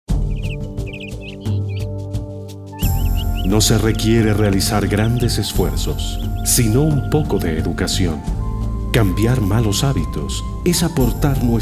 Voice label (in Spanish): Cápsula Medio Ambiente PUBLICIDAD